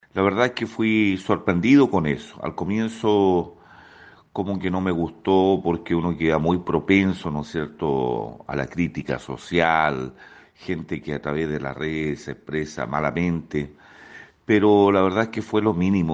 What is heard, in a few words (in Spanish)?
Cuña